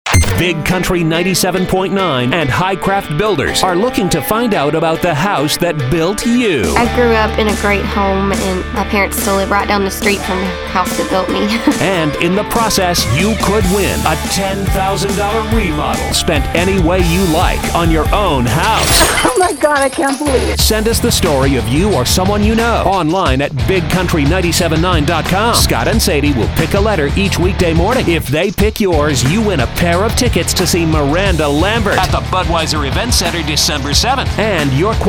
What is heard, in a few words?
Recorded Promo; CMT Tour; Clear Channel; concert promotion